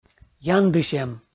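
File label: "яндысьӧм", Komi, male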